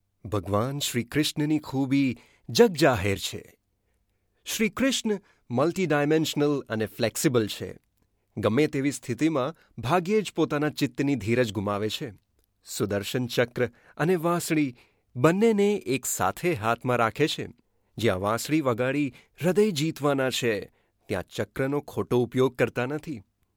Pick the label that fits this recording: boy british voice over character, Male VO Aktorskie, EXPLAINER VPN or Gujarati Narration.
Gujarati Narration